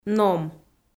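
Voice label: "ном", Komi-Zyrian, female; audio dict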